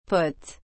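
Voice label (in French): "pote", French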